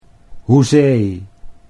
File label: "hoezee", Dutch